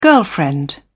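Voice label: "girlfriend", English, British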